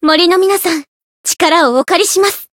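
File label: Voice chant, Japanese; veina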